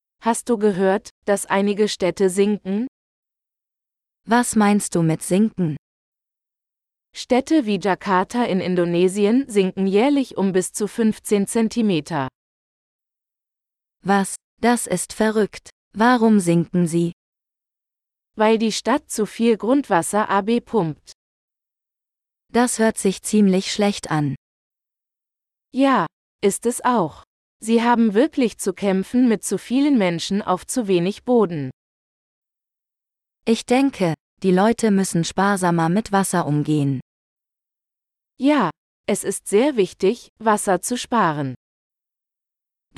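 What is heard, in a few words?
Water as a Resource - Dialogue, German; de-en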